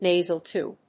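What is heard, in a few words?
"nasal tube", English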